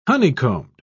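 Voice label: "honeycombed", British English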